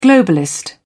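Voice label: "globalist", British English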